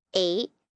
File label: Numbers "Eight", 1 child, girl counting; Talking Sound Effects; Sound Effects:Voice; VoiceBox